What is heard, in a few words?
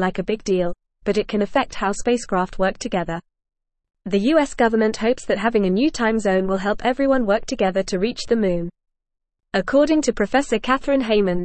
English Upper Intermediate FAST Reading; US Urges NASA to Develop Moon Time Zone